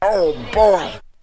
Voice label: "oh boy", cartoon; ooh ahh